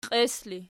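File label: "qies" ergative variant, Archi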